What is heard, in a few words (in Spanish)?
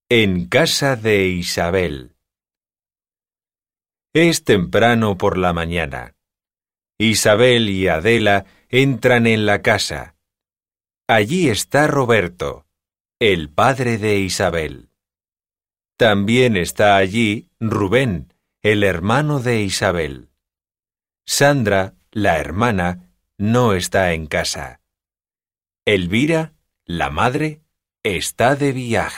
texto lento; Buenaidea; spansk